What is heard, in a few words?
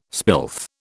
"spilth", British